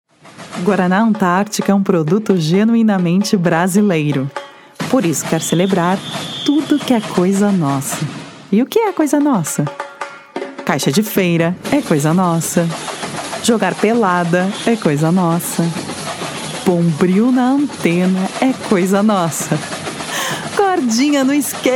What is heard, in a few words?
Brazilian Portuguese